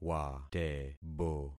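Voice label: "watebo", concatenated syllables; Affixing experiment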